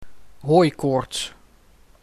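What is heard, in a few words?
"Hooikoorts", Dutch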